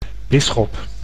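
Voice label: "bisschop", Dutch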